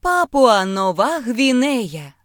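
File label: "papua new guinea", Ukrainian; gcompris; geography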